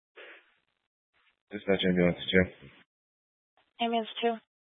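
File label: Fire Dispatch, Scanner audio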